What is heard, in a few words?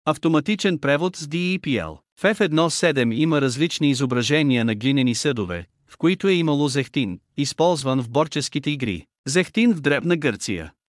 bulgarian; oliveoilmuseum